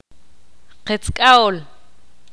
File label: "vecino", Ixil